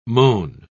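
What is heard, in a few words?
"moan", English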